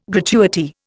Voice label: "gratuity", Indian